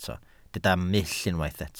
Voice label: "mull", Welsh